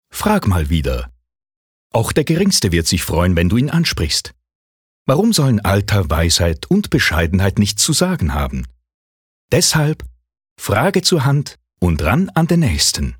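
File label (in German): Swiss German Werbung Freundlich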